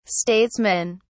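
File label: "statesmen", British English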